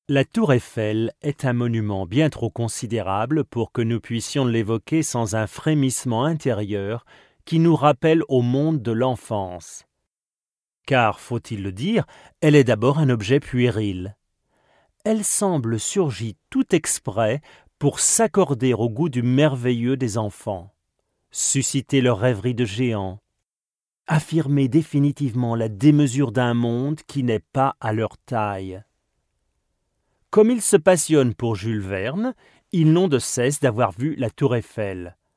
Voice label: Narration Audiobooks, French Voiceover; Tour Eiffel; Hörbücher